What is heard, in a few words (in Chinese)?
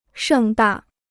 "盛大", Chinese